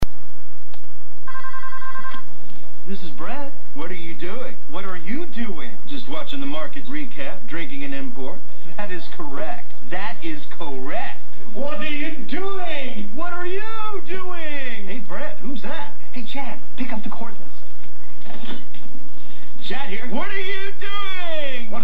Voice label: Commercial; Budweiser

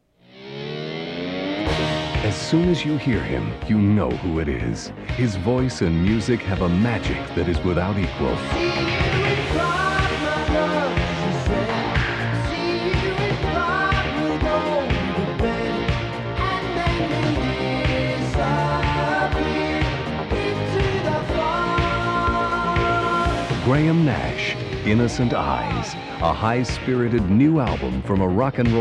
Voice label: Radio Commercials; 1986

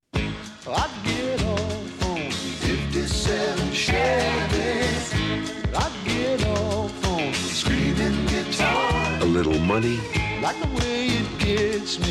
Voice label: Radio Commercials

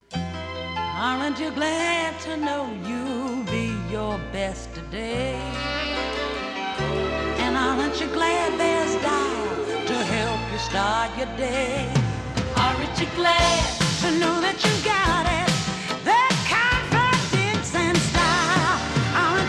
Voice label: Radio Commercials Soap; Dial

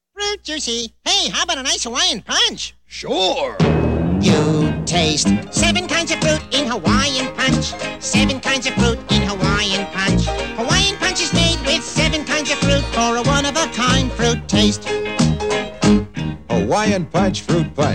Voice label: TV Commercials; Hawaiian Punch

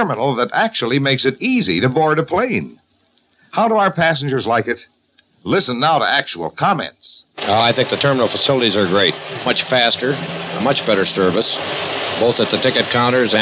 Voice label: Airlines Radio Commercial; Northwest Orient Airlines

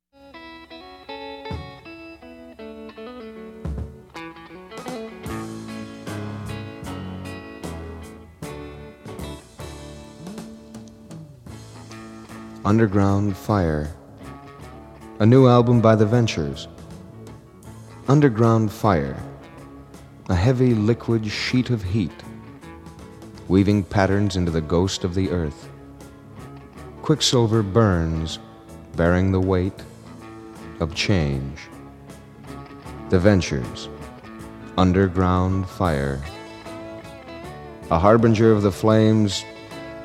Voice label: Radio Commercials

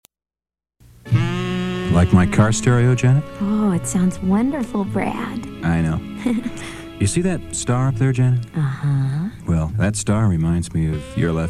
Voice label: Radio Spot; Pioneer; 1974

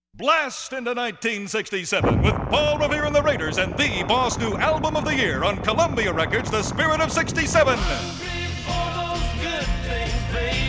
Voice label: Radio Commercials

